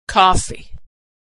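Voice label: "coffee", American English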